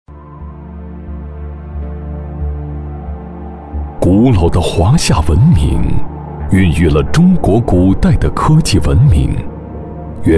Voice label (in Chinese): 男 专题 浑厚苍伤感人文记录